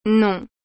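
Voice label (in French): "non", French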